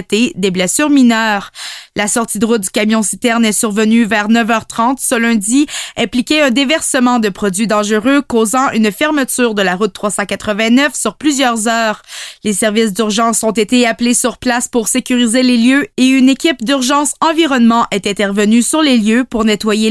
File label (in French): Reportage Homme foret